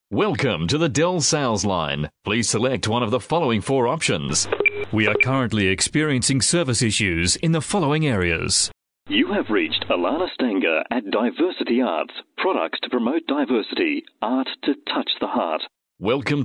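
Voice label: Australian English, male, voice over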